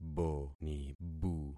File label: "bonibu", concat syllables; Affixing experiment